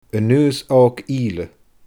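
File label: "Aonghas Òg Ìle", Scottish Gaelic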